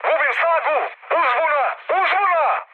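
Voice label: Serbian damaged engine mood high, speech; War Thunder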